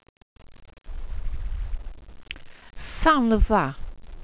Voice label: "sandda", welsh pronouciations; Wales/welsh names